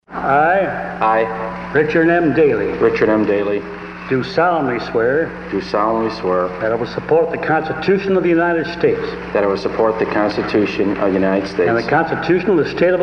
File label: swearing-in bless you applause